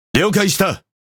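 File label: Speech Quick Commands Aye Aye, Japanese; World Of Warships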